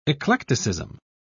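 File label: "eclecticism", British English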